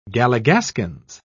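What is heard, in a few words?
"galligaskins", British English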